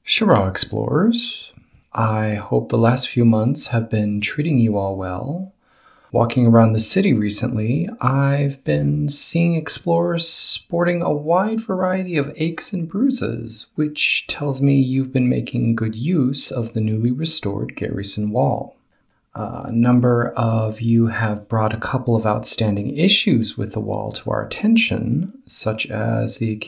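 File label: English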